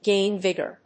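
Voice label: "gain vigor", English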